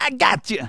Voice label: "Revenge", speech; Soul Man